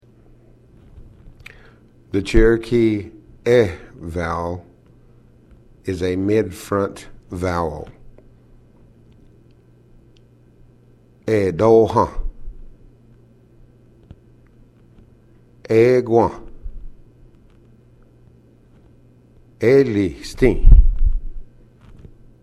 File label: Cherokee sounds